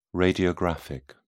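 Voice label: "radiographic", British English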